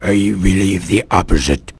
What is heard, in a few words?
answer, vort speech; hl2